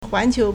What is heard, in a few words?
"huán qiú", Chinese